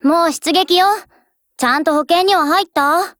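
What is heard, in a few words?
GO ATTACK, Japanese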